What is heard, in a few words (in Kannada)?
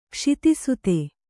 "ಕ್ಷಿತಿಸುತೆ", kannada